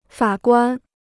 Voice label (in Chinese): "法官", Chinese